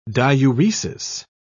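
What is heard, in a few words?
"diuresis", British English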